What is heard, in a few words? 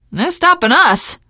hurrah, teen girl, survivor voice